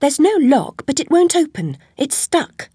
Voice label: chitchat; svencoop; Alice